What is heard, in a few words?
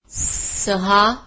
"6", Marathi